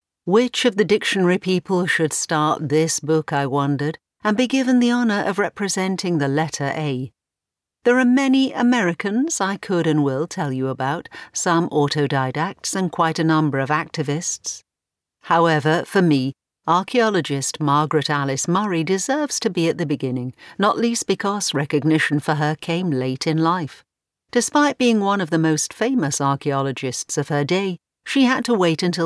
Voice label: AUDIOBOOK MONTAGE